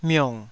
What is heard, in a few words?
"miong3", Taiwanese